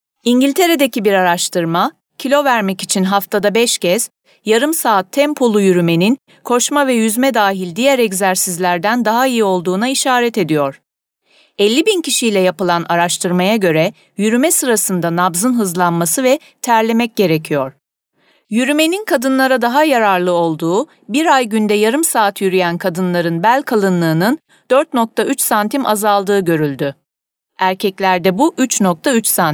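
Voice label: Turkish female corporate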